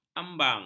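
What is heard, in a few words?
"ambang", Malay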